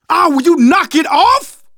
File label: friendly fire, manager, survivor, voice; Left 4 Dead 2; left4dead2 dlc2